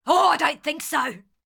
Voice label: Ranged Female Alert Line, Thug Spotted; NPC; Heist